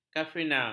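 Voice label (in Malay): "kafeina", Malay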